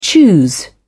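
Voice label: "choose", British English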